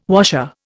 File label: "washer", Indian